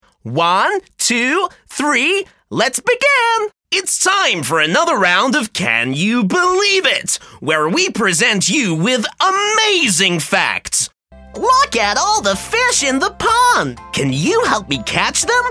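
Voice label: American English, toys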